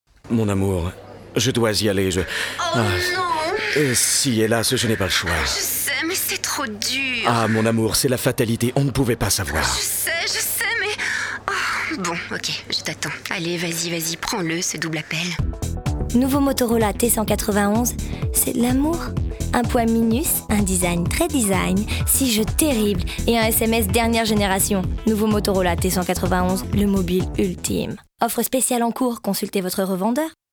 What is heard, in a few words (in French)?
Femme au téléphone, demos voix; Motorola